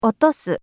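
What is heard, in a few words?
"otosu", Japanese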